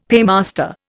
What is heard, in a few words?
"paymaster", Indian; Dictionary